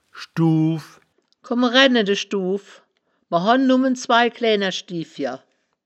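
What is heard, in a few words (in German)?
"schduuw", wittlicher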